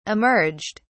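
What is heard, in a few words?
"emerged", British English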